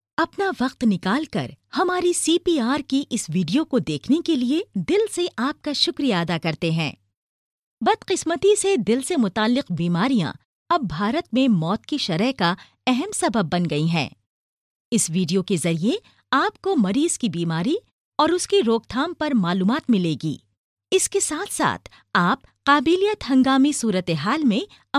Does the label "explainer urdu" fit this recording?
Yes